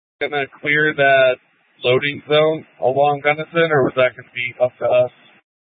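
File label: Incident Tac 3, Scanner audio recording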